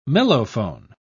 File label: "mellophone", pronounce